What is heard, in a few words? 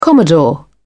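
"commodore", English, British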